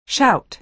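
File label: "shout", American English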